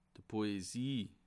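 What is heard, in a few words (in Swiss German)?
"poesie", Bas Rhin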